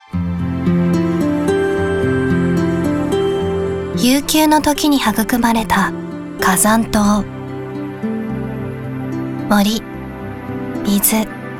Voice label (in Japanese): ドキュメンタリー